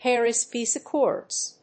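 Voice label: "Paris Peace Accords", English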